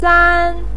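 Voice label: "san", Mandarin pinyin, first tone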